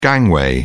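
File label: "gangway", English, British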